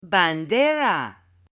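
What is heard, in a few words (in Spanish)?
"BANDERA", Spanish, female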